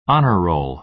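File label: "honor roll", American English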